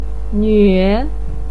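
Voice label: "nve", Mandarin Chinese third tone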